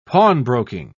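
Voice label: "pawnbroking", British English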